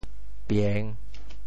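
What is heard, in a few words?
"pieng3", Teochew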